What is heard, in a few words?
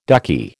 "ducky", American